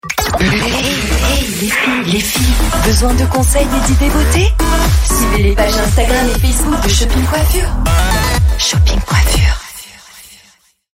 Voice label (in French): Pub Radio In Store Shopping Coiffure, voix feminine